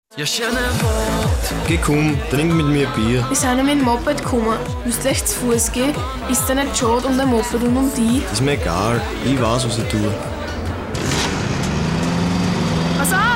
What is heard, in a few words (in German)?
Verkehrs Spot